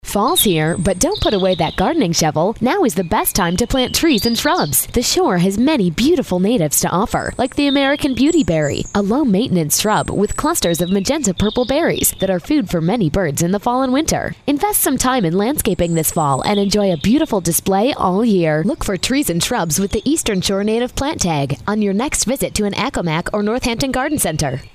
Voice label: radio ad; plantes natives